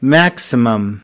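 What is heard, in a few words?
"Maximum", American English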